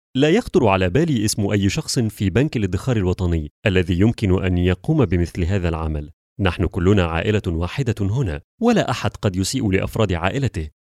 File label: arabic cartoon animation